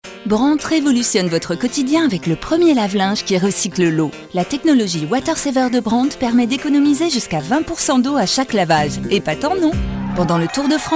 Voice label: pub dynamique promo; BRANDT

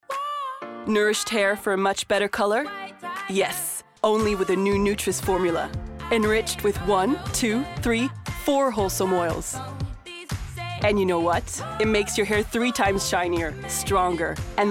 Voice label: pub US; GARNIER

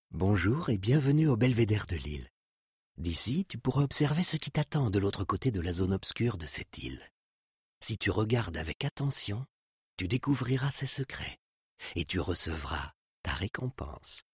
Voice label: NARRATION douce

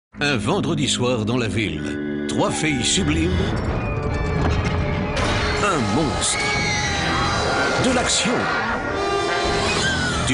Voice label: PUB TV Peur Chipie Comedie; Apericube

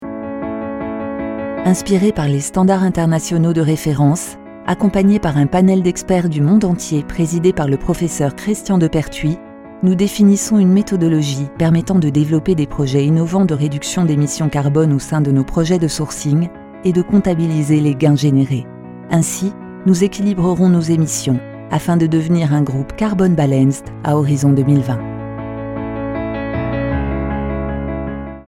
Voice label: institutionnel naturelle droite; LOREAL

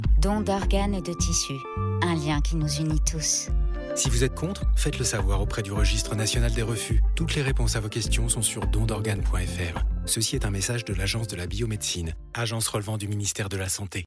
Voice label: radio don d'organes

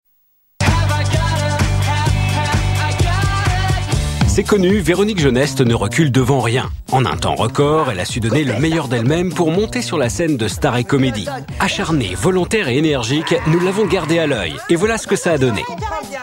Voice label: voix off; Stars et Comedie

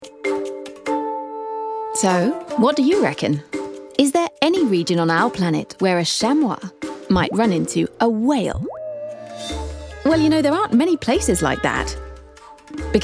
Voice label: Educationnel Friendly; Alpes

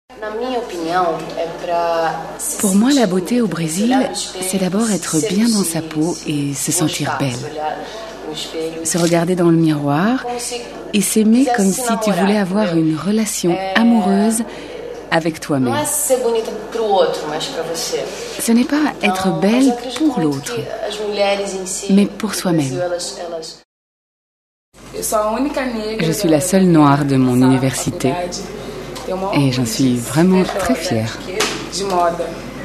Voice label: voice over bresil

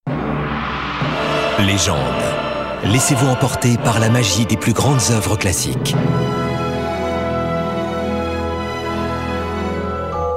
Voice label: Spot TV; Légendes

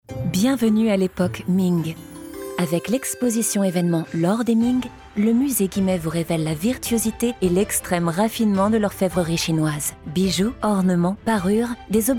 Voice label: PUB RADIO FR; voix-off; Musee OrMing

